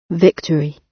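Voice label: "victory", British English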